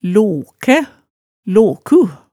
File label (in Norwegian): "lokæ", hemsedalsmaalet tale